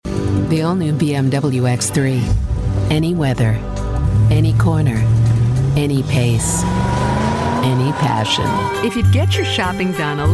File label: Commercial